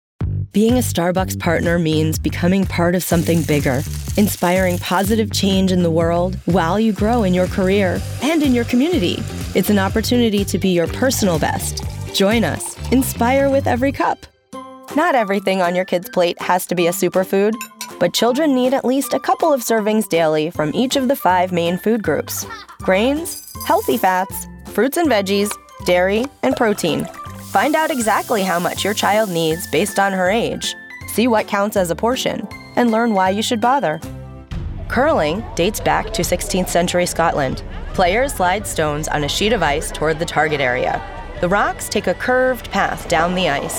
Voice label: Narration; voice over